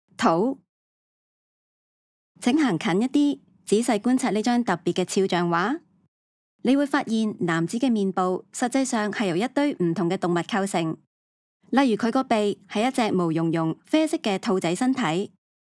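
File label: Cantonese, audio guide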